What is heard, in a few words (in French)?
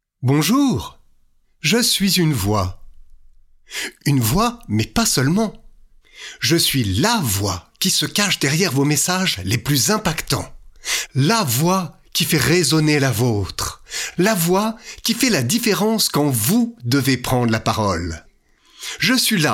institutionnel; radioshop